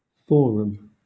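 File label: "forum", British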